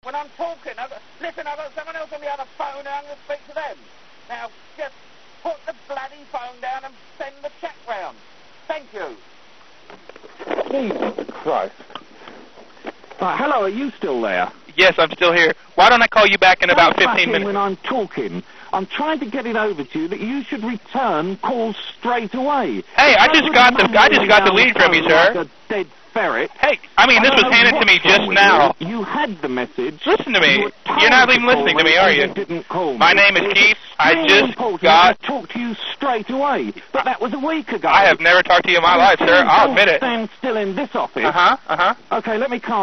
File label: jokecall, pranks; phone phun